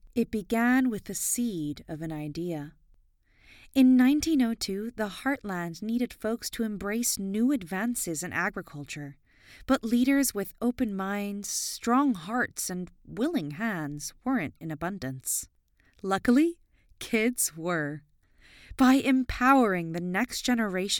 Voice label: Home Studio